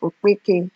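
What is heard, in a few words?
"okpeke", Nigerian Pidgin, female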